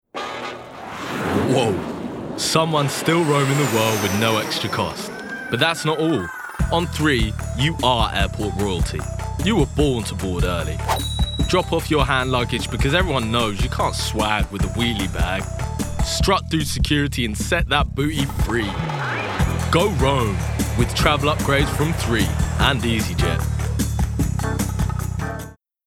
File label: Commercial Reel; THREE